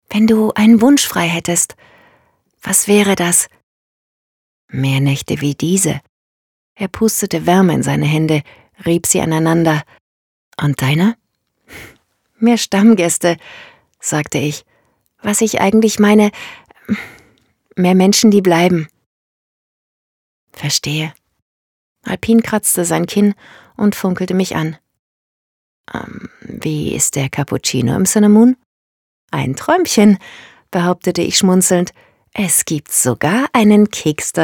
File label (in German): Hoerbuch Romance Weihnachtsgeschichte, Audiobook (Hörbuch), German